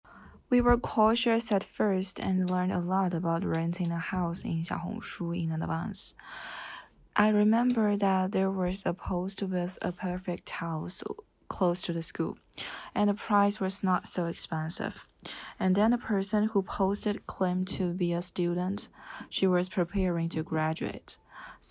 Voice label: Interview